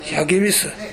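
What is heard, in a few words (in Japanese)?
"yagimisu", 南山形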